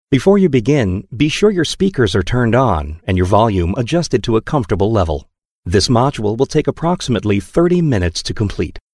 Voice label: E-Learning